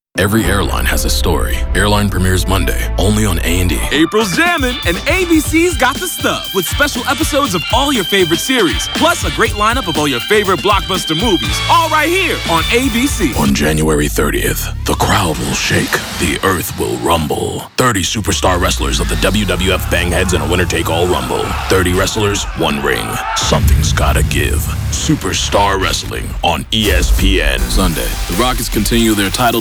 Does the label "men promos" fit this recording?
Yes